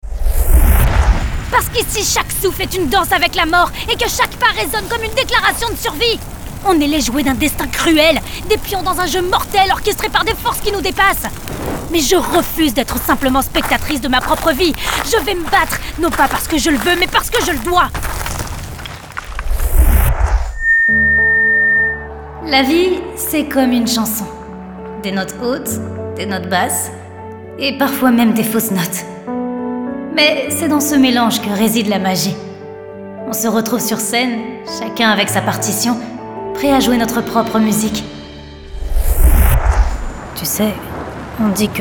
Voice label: DUBBING